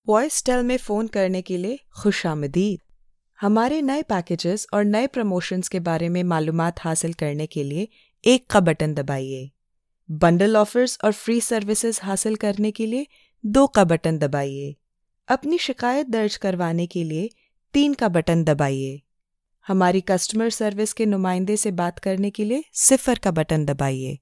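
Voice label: Urdu, female, IVR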